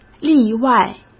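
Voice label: "例外" Mandarin Chinese; hsk; chinese-flashcards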